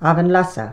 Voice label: "avinlussa", Placenames, islay